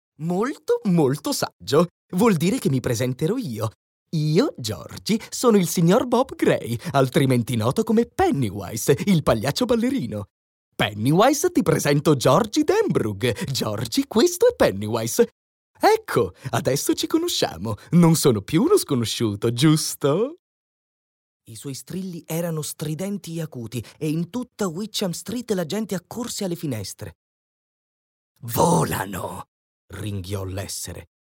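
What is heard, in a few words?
Italian, male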